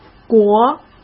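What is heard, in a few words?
"国", Mandarin Chinese; hsk; chinese-flashcards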